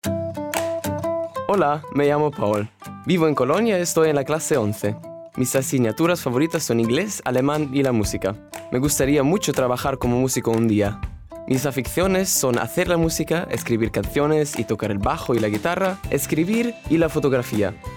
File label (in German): Selbstvorstellung ES